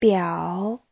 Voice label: "biǎo", Chinese; Giáo trình Hán ngữ